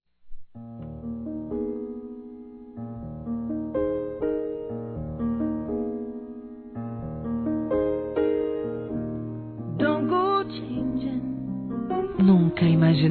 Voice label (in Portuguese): RECONCILIAÇÃO F; fem